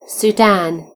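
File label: "sudan", British English; gcompris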